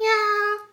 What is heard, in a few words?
happy, vocal; Techmino